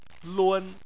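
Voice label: "lun", Chinese, first tone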